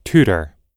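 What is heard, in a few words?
"tutor", English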